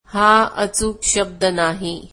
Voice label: marathi this is not the correct word

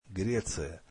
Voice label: russian Greece

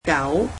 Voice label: "dog", cantonese

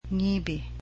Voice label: "snowy", cebuano

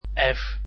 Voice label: "f", dutch alphabet

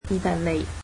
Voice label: "Italy", Cantonese

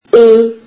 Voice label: turkish alphabet ii

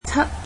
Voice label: "seven", cantonese

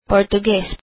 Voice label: "Portuguese", filipino

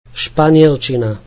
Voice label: Spanish, slovak

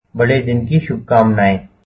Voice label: Merry Christmas, hindi

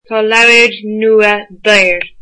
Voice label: new books are expensive, irish

